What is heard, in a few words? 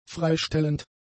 "freistellend", German; adjectives